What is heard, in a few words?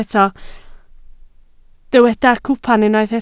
"cwpan", Welsh